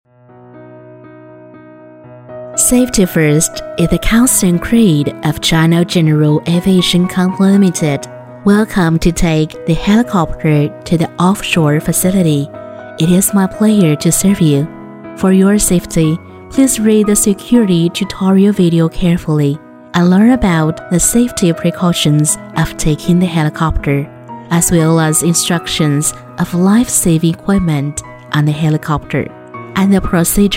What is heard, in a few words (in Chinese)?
英女 英语播报 航空公司 温柔